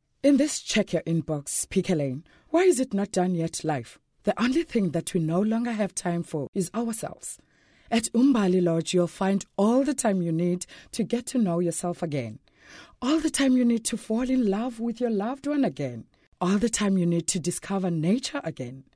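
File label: soft sell, english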